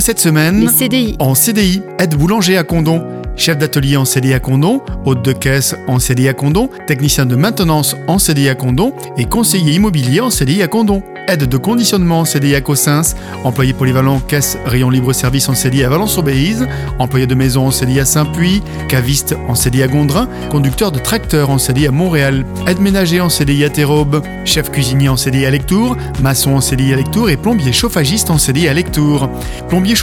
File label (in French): PUB FRANCE TRAVAIL CDI